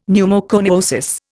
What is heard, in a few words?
"pneumoconiosis", Indian; Dictionary